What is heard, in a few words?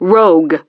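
"Rogue", English; DCS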